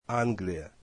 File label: russian England